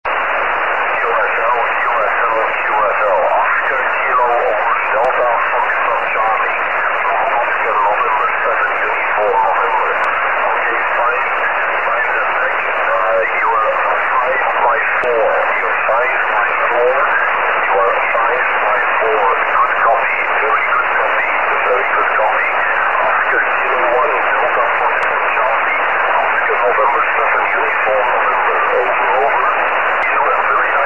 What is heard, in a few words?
SSB QSO, EME